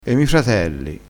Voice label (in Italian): "mi", crespinese